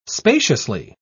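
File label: "spaciously", English, British English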